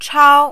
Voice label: "chao1", mandarin